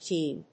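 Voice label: "DIENE", English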